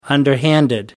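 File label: "underhanded", English, British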